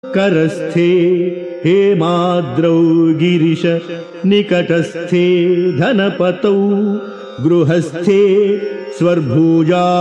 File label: Sanskrit; Sri Adi Sankaracharyas Sivananda Lahari; Adi Shankaracharya; श्रीशिवानन्दलहरी २७